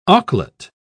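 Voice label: "auklet", British English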